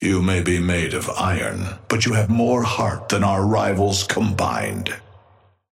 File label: male ally bebop start; Patron